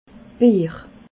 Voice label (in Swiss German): "beer", alsace